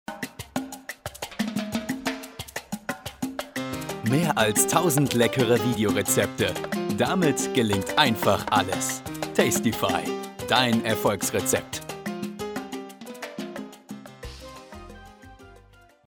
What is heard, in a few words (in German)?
Werbung Webkochbuch